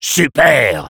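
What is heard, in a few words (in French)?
cheers, French; Sniper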